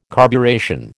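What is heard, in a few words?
"carburation", American English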